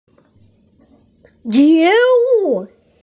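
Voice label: "awakening", Mandarin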